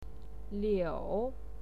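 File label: "liu", Mandarin Chinese, third tone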